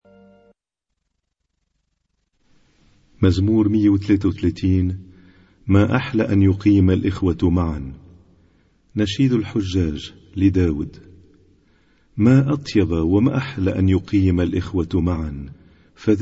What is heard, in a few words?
Arabic Psalm Zaboor